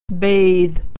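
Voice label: "bathe", American English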